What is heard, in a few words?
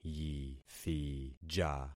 "yithija", concat syllables; Affixing experiment